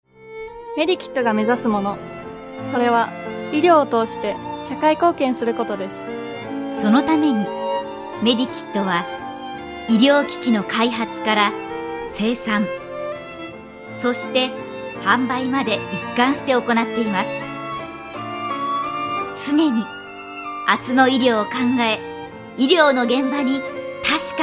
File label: Japanese